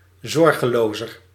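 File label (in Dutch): "zorgelozer", Dutch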